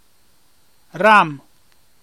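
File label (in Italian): "Ram" Emilian dialect word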